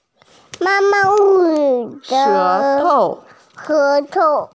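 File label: "tongue", Mandarin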